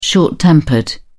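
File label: "short tempered", British English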